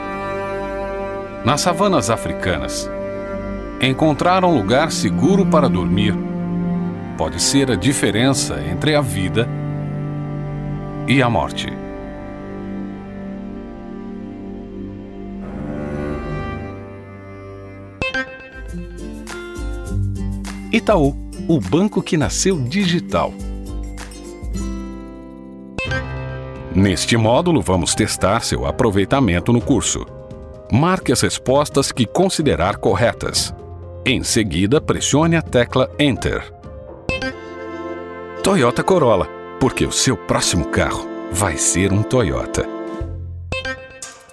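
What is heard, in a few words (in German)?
Portuguese Variabel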